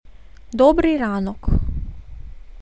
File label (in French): "Dobryi ranok" en Ukrainien